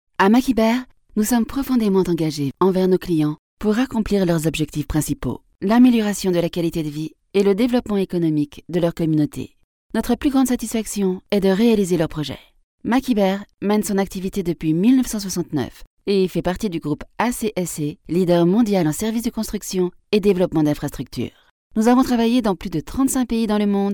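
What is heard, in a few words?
French, France, female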